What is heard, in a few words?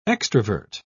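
"extravert", British English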